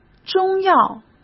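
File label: "中药", Mandarin Chinese; chinese-flashcards; hsk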